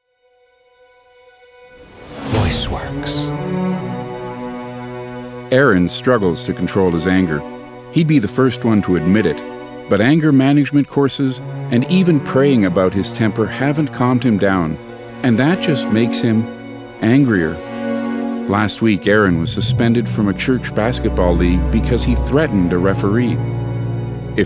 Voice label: Narration